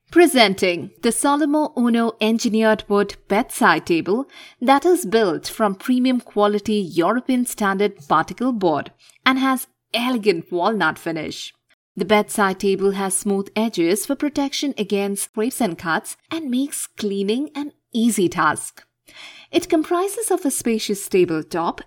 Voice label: English explainer